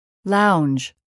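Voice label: "lounge", English word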